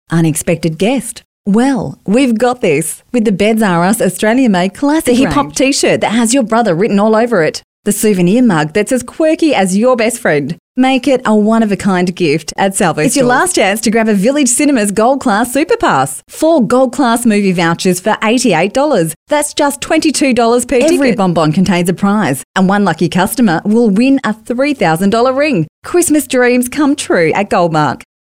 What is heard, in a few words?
Soft Sell